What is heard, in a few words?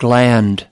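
"gland", English word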